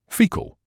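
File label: "faecal", British English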